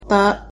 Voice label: my name is, japanese